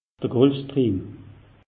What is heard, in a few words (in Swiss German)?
"gulfstream", alsace; meteo